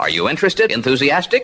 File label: are you interested enthusiastic; questions